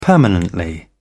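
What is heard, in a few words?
"permanently", British English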